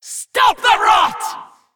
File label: Female Dragon Attack